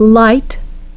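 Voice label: "lite", English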